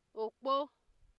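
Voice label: "okpo", Nigerian Pidgin, female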